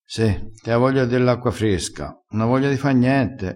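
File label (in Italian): "ave voglia", vocabolario dialettale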